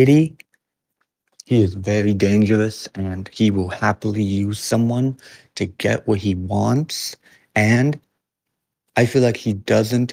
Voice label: voice message